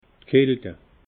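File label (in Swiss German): "kalte", Alsatian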